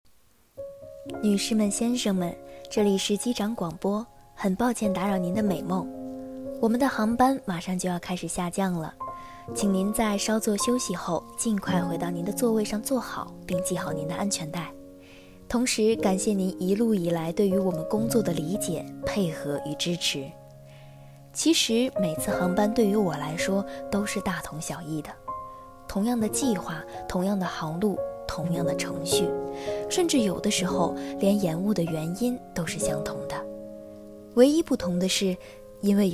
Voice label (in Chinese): 机长广播